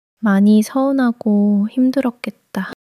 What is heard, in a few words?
sadness